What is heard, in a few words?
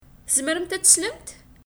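Kabyle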